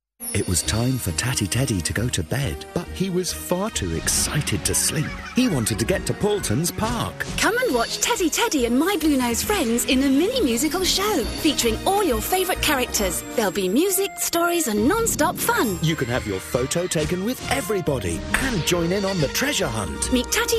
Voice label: radio ad; paultons park